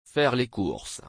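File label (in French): "faire les courses", French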